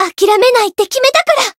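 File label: variable chant, Japanese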